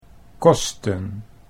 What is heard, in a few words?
"kosten", Dutch